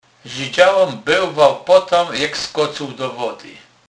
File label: belwa, Kurpie; slownik